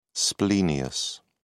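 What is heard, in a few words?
"splenius", British English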